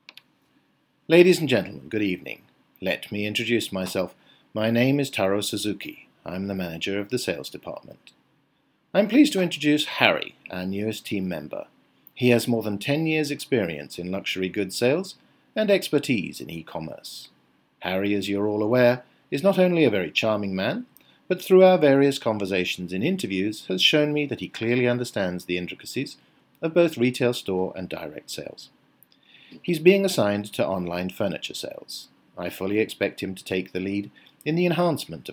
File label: toast speech